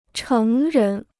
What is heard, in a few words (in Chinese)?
"成人", Chinese